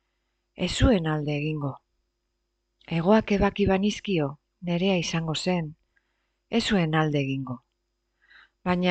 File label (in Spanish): Poema euskera Txoria txori